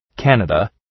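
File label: "Canada", English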